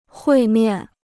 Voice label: "会面", Chinese